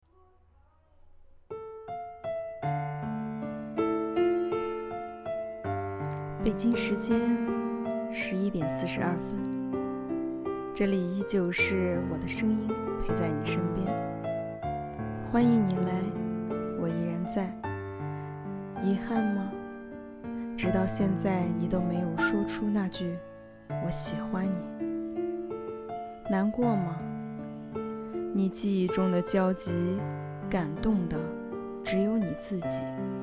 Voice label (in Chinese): 电台配音